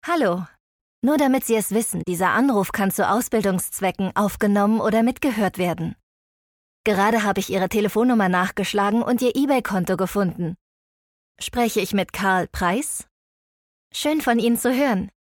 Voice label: IVR